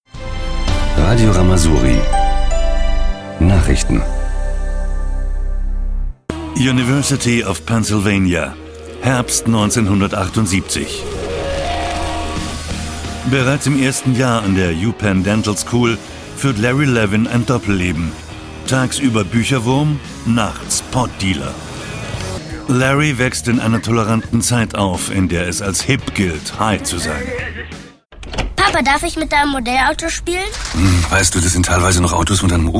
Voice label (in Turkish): Almanca Erkek, seslendirme